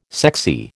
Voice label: "sexy", American